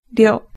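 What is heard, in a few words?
"ryo", Japanese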